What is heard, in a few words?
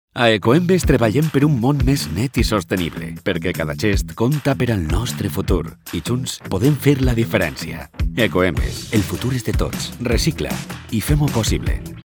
catalan explainer videos